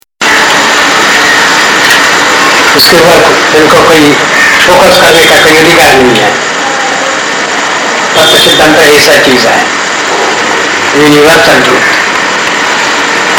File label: Hindi and Bengali; Navadvipa; Vamana Maharaja